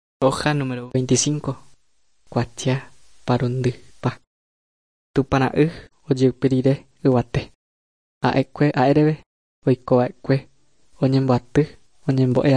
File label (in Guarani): guarani mbya; good news and words of life; bible